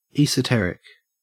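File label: "esoteric", Australian English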